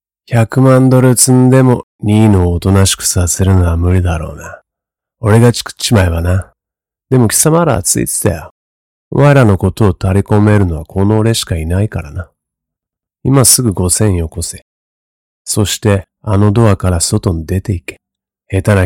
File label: char jap